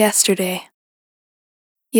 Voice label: "Yesterday", vocal samples; Words